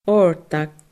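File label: "ordag", Scottish Gaelic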